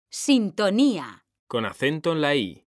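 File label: "sintonía", Spanish, female; mekanta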